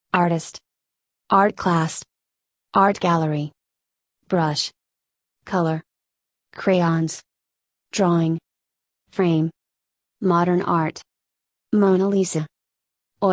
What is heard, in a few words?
usa